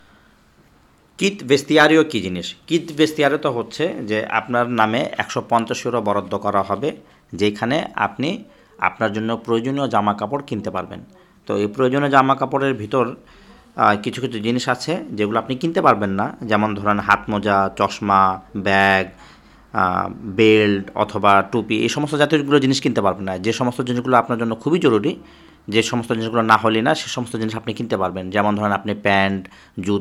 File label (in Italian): Bangla